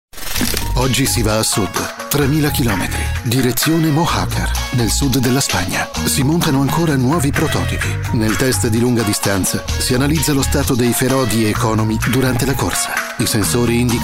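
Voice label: Italian, male, commercial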